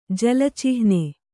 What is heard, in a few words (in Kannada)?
"ಜಲಚಿಹ್ನೆ", Kannada; jala cihne; noun